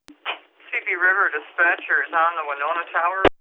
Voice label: Radio